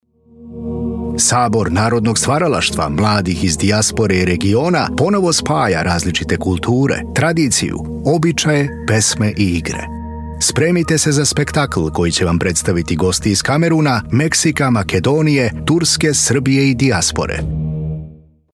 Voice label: festival tv annnoucer serbian voice over